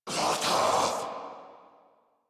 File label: Attack Corrupted Grineer Male